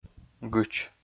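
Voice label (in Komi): "гыч", Komi, male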